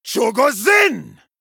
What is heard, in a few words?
Male Troll Attack